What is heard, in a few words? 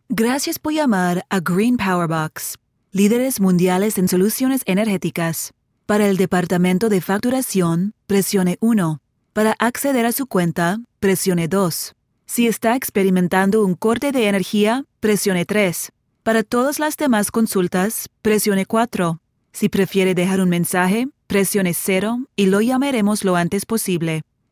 Spanish secondary; Spanish AM